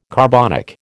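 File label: "carbonic", American English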